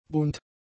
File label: "bunt", German; adjectives